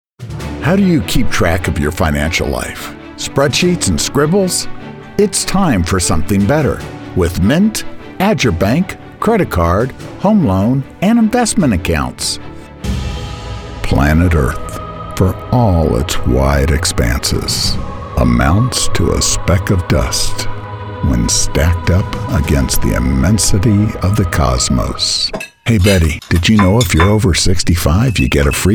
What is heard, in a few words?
Commercial